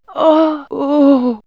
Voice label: Men Women Animal Magic, vox; X-Static Goldmine